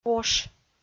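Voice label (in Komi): "ош", Komi, female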